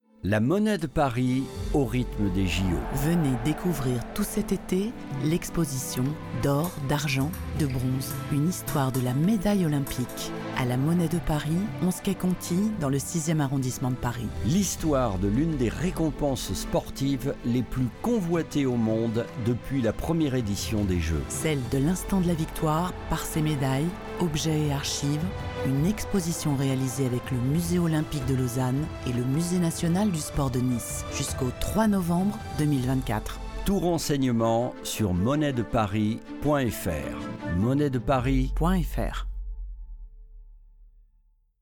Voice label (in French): PUBLICITE; MONNAIE DE PARIS; JO 2024